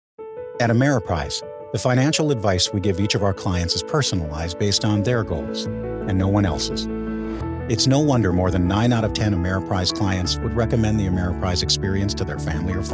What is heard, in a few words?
Commercial